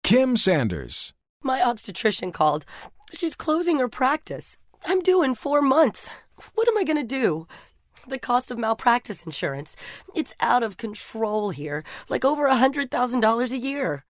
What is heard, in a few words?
UPSET